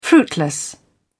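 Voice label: "fruitless", British English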